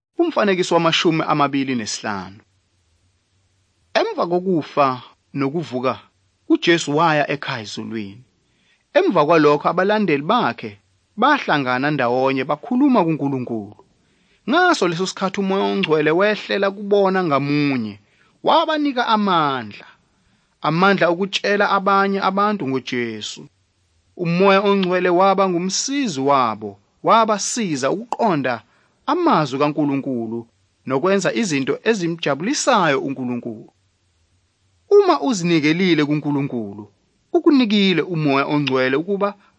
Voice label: zulu bible; good news